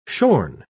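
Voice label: "shorn", spoken word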